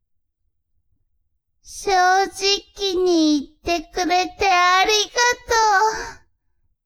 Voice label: woman